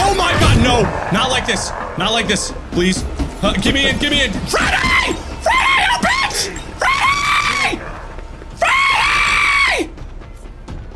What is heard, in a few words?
yells; markiplier; freddy